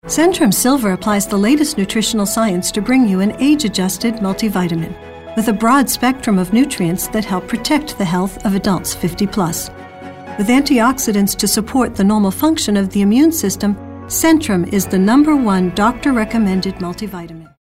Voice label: informative

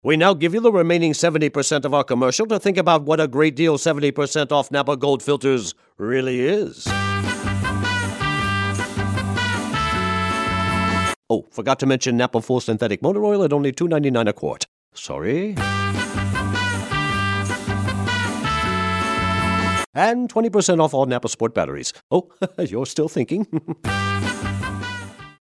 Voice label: kooky

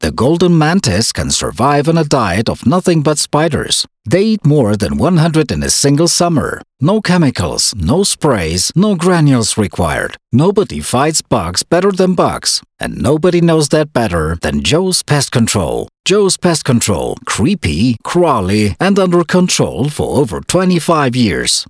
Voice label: Commercial; Joe's

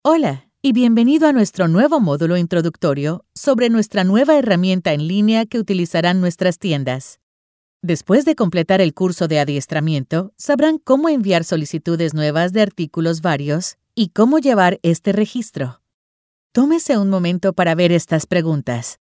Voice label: Informational

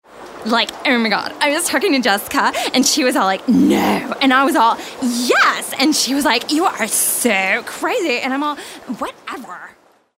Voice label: valley girls

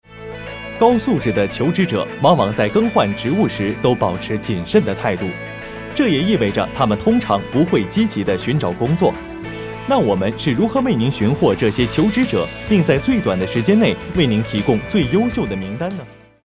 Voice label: Mandarin, Corporate

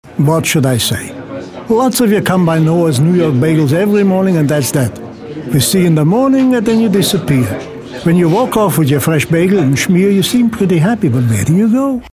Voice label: jewish

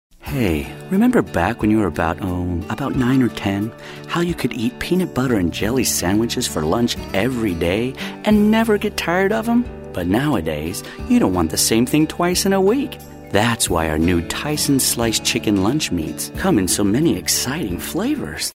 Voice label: confessional

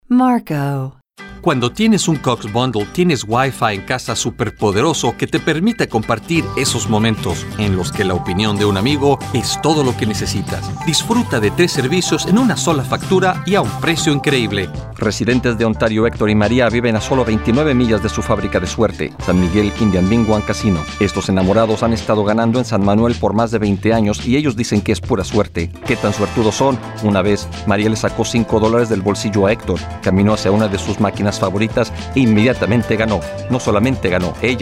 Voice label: Spanish Showcase

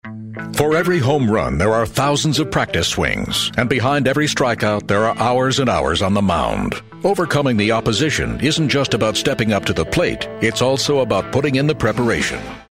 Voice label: motivational

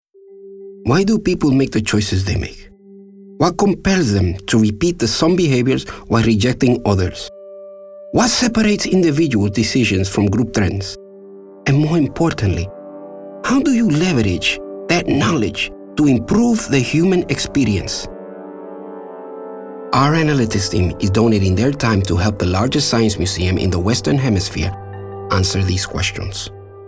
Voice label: Accented English Conversational; Analytics

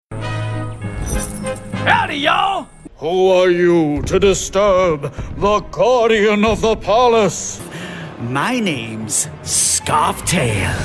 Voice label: Animation Voices

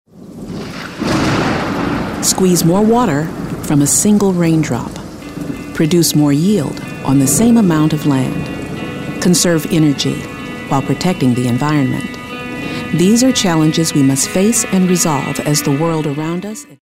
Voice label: concerned

